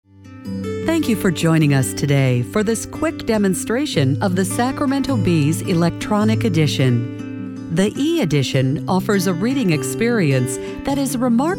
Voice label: US narration

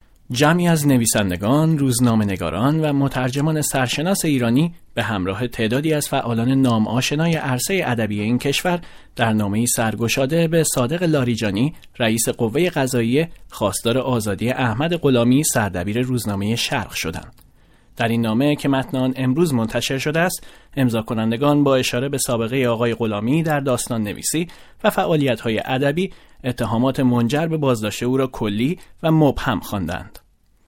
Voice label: Farsi

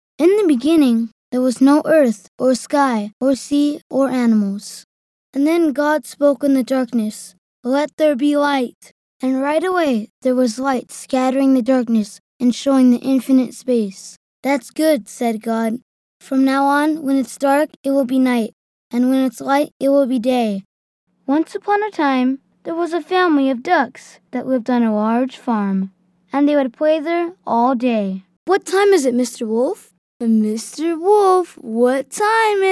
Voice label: US boy, children

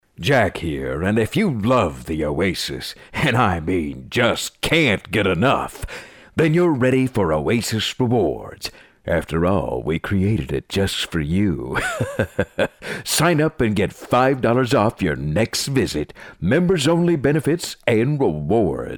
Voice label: Character; Jack Nicholson

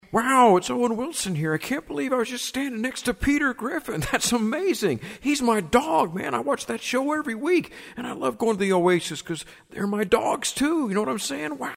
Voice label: Character; Owen Wilson

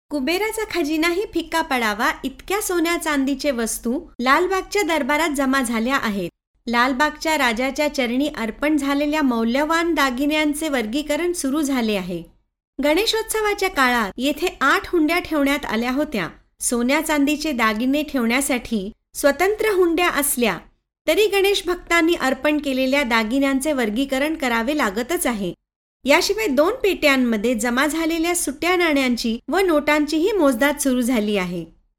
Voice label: MARATHI

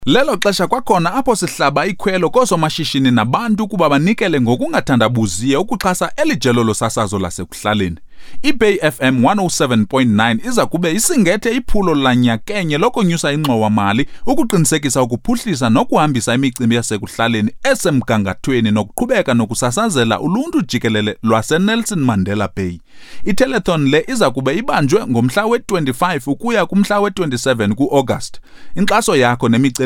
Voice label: Xhosa male

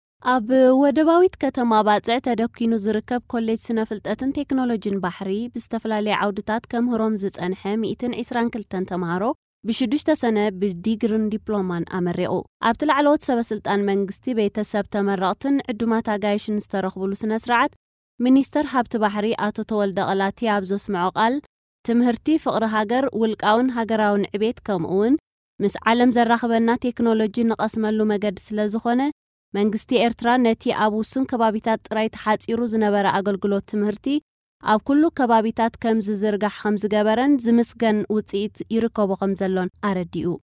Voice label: Tigrinya

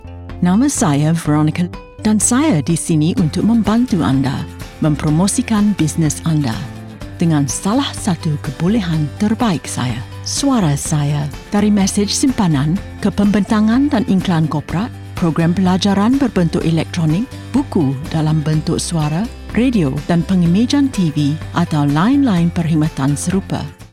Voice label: Malay

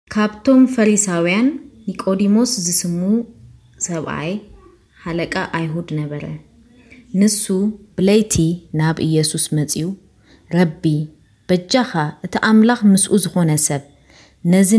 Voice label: Tigrinya

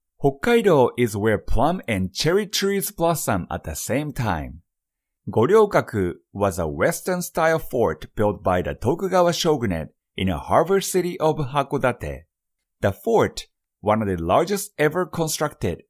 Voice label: English

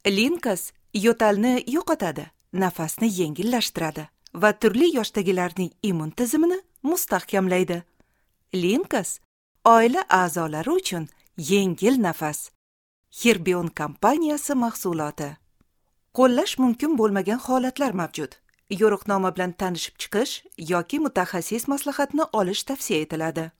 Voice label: Uzbek

